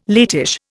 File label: "latish", Indian